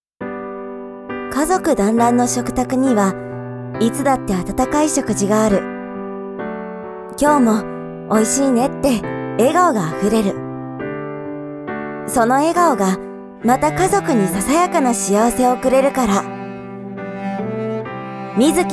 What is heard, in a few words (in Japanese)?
WEBCM; 美月のおいしいごはん